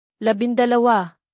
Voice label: native, tagalog numbers